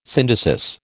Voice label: "syndesis", American